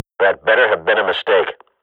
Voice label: radio bot under fire friendly, Counter-Strike swat; Global Offensive; player vo